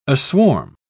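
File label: "aswarm", British English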